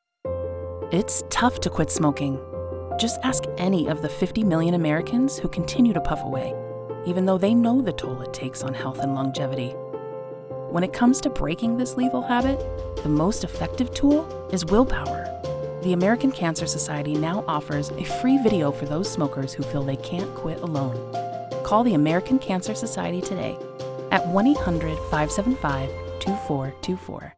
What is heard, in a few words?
PSA Warm, Caring, Engaging, Sincere, Serious; American Cancer Society